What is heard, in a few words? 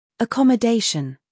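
"humor", British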